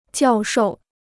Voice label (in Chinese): "教授", Chinese